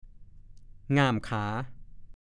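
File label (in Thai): "ง่ามขา" ภาษาโคราช; ช่วงระหว่างขาอ่อนทั้งสอง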